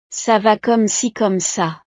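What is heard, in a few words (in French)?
"ca va comme ci comme ca", French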